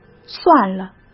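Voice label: "算了", Mandarin Chinese; hsk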